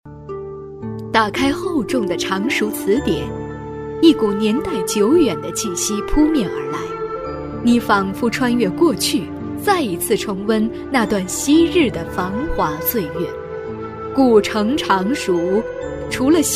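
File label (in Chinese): 女-记录片【历史】; 温柔知性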